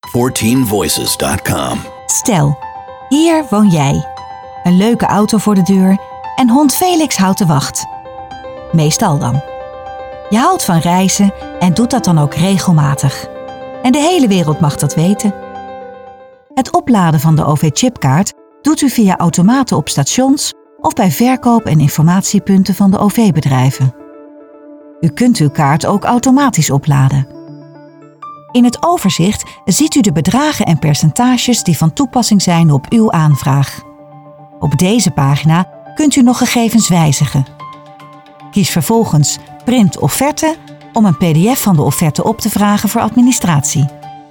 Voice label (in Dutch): Narratief; 14Voices